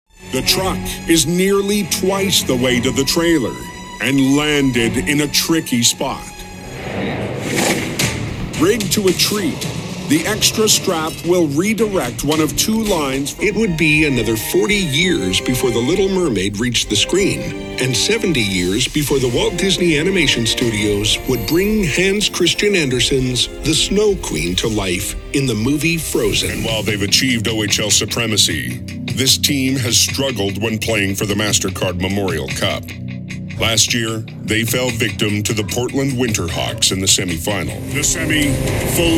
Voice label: TV Narration

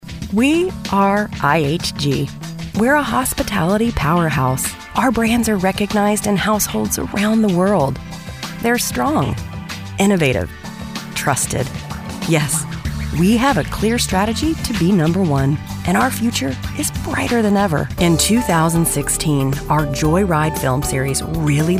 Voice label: Narr

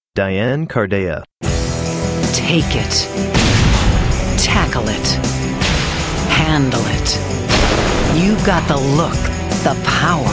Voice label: Commercial

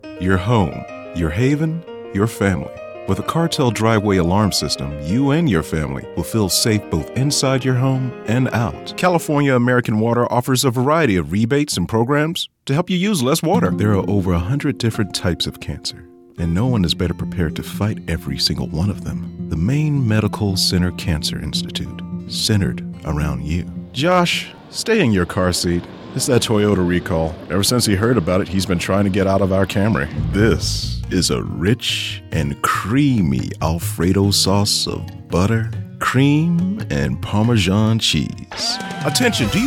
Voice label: Commercial

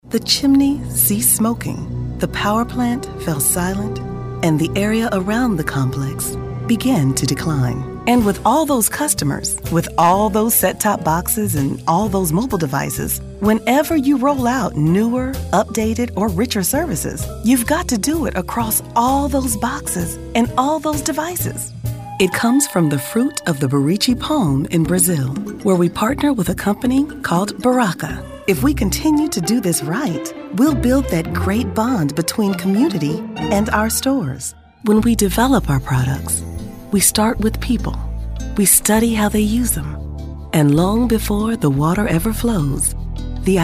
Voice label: Narration

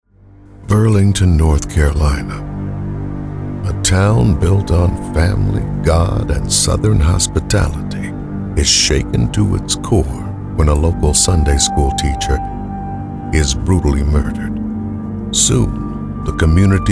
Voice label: NARRATION

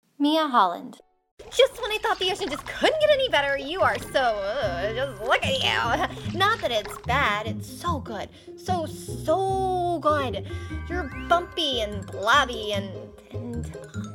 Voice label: Animation

